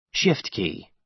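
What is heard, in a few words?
"shift key", American English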